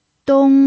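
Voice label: "dong", Mandarin Chinese, first tone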